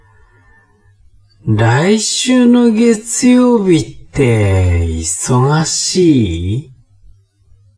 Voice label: man